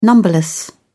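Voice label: "numberless", British English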